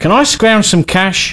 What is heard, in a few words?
Need Money, male; svencoop